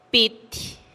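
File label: "pitih", Teotitlán del Valle Zapotec; pelo chino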